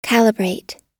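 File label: "calibrate", American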